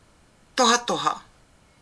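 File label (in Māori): "tohatoha", Māori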